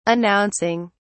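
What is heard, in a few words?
"announcing", British English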